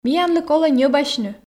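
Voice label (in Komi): миянлы колӧ ньӧбасьны, Komi, female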